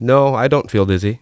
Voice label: I don't feel dizzy, Adult Male Speech